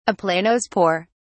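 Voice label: "aplanospore", British English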